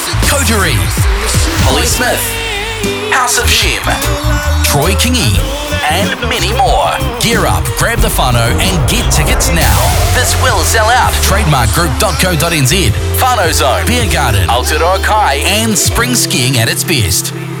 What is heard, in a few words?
Imaging Roots Blues Festival Trailer; Ski